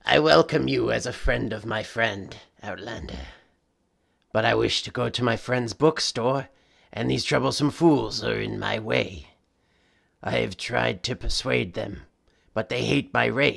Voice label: argonian male, voice auditions

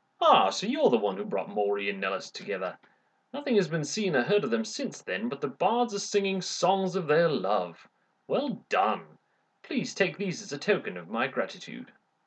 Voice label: breton sample lines

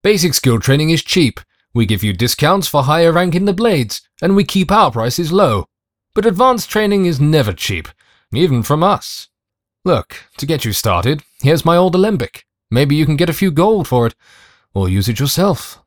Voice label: high elf voice sample

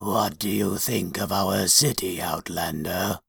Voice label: dunmer take, dark elf voice auditions

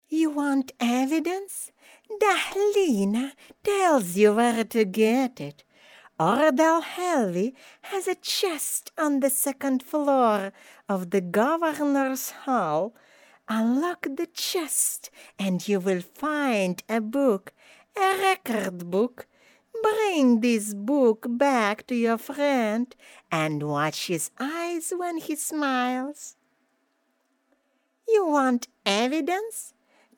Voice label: khajiit female pitch exciter low cut

